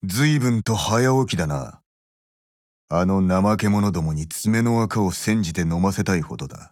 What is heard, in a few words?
MORNING JP